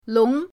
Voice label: "long2", pinyin